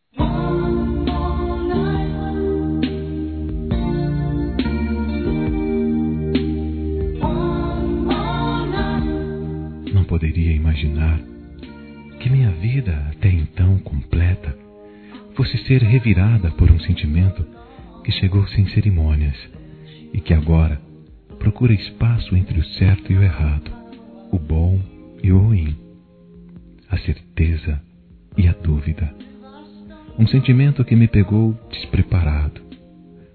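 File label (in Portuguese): Namorada Recente Masculino; Aniversários; ANIVERSARIO romantico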